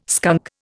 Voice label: "skunk", Indian

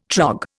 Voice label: "trog", Indian

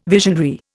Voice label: "visionary", Indian

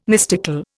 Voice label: "mystical", Indian

